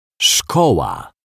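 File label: "szkola", Polish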